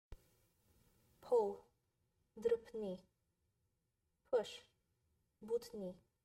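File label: Pull push, Bulgarian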